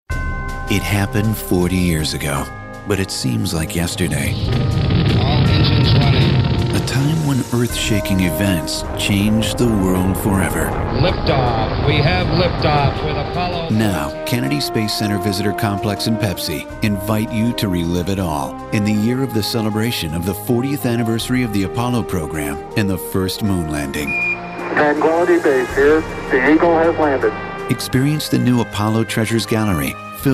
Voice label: CAT Inspirational